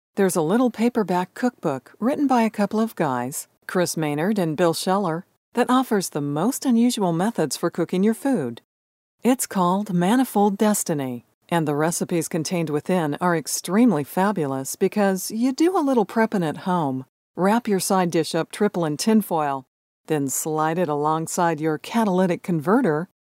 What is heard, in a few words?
Conversational Narration